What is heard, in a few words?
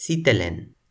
"sitelen", Toki Pona